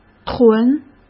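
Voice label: "屯", Mandarin Chinese; hsk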